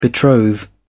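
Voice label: "betroth", British English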